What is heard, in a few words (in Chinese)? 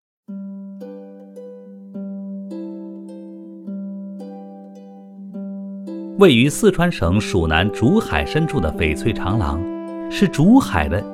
男 专题 旅游美食解说, 国语男声配音